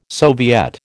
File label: "soviet", American